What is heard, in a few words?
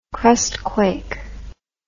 "crustquake", english